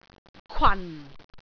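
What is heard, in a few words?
"kwan4", Cantonese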